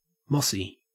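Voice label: "mossy", Australian English; pronunciations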